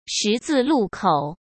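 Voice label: "十字路口", Mandarin Chinese; hsk; chinese-flashcards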